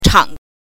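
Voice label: "chang", Mandarin Chinese pinyin, neutral tone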